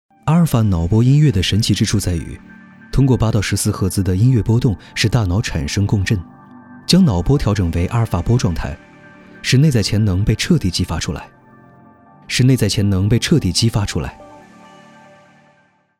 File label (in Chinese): 科技男